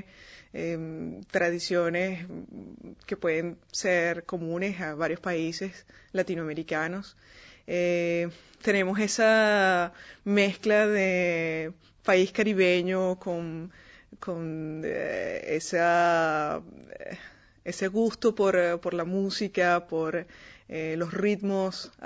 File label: Venezuela, dialekt